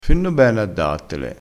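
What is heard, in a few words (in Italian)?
"fenno", vocabolario dialettale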